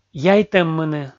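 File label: "яйтӧммыны", Komi, male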